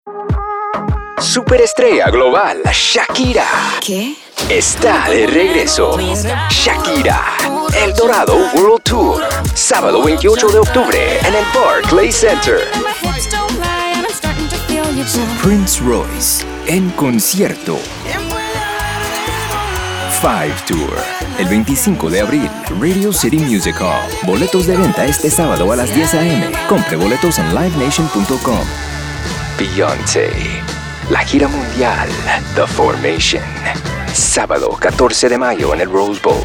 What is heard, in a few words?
Concert Promo Spanish